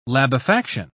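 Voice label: "labefaction", British English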